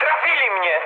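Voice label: Polish aircraft destroyed mood high; War Thunder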